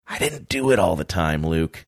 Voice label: quietly saying i didnt do it all the time